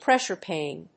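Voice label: "pressure pain", English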